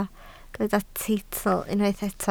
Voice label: "teitl", Welsh

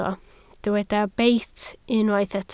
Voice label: "beit", Welsh

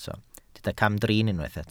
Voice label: "camdrin", Welsh

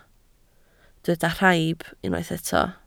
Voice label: "rhaib", Welsh

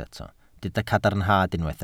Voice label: "cadarnhad", Welsh

